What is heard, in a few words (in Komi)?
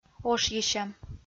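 "ошйысьӧм", Komi, female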